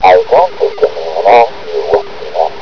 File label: mumble